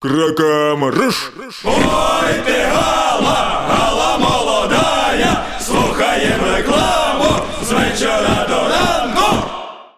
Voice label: reklama; gala; soldaty